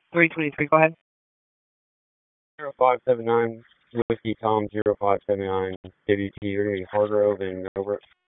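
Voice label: Raleigh Police Northeast District Dispatch, p25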